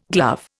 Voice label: "glove", Indian